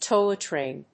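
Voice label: "toilet train", English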